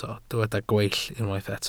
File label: "gweill", Welsh